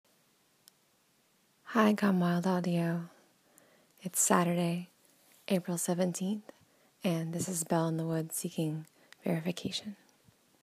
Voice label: [F] Verification and Hello